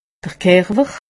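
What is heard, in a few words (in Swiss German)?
"kerper", Haut Rhin; medecine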